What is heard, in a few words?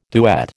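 "duet", American English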